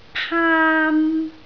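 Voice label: "paan1", Cantonese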